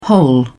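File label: "hole", British English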